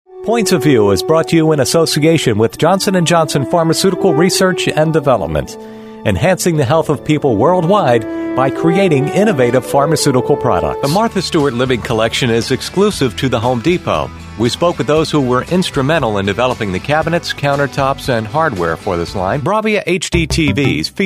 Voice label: Corporate Voiceover

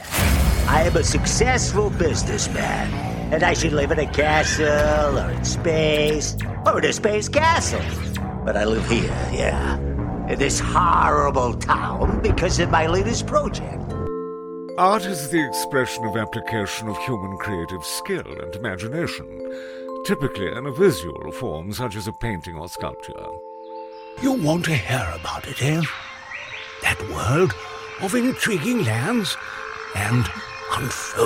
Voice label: Male Character Voices; Award-Winning; Best Performance Male 2021

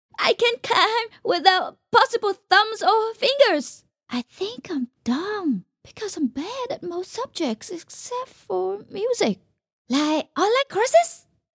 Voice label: Animated character timid Elephant

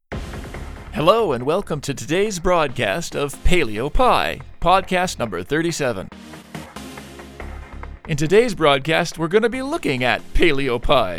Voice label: podcast; Paleo

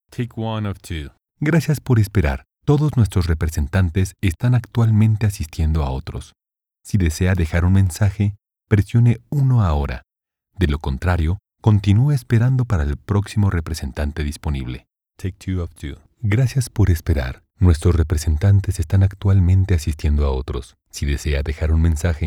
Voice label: IVR Spanish

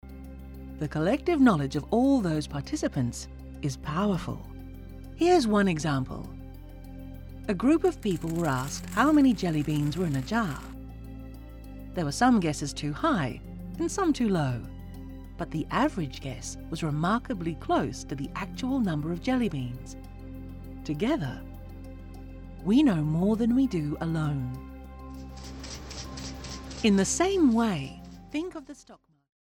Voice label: Explainer video

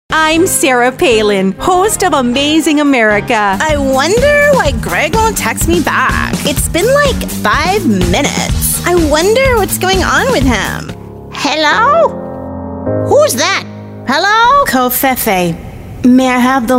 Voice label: English Characters

